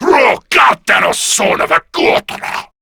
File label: Player Spotted, Male